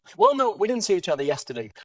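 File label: VoiceActing es, Male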